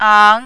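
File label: "ang" tone 2, Mandarin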